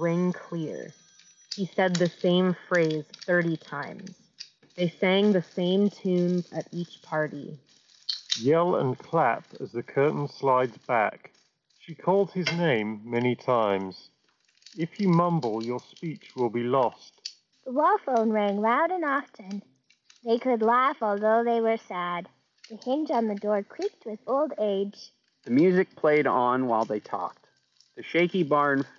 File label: Office microphone sample; Bose Quietcomfort Earbuds 2